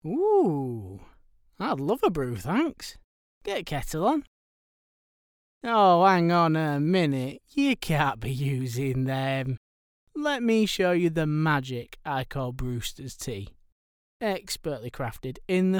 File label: Commercial